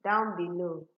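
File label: "down below", Nigerian Pidgin, female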